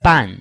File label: "ban4", Chinese